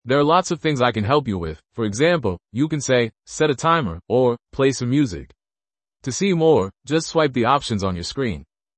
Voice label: google assistant voice; Lime